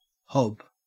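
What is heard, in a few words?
"hob", Australian English; pronunciations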